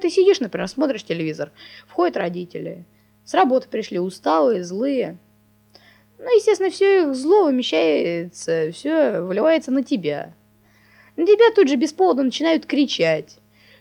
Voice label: Speech Noise Free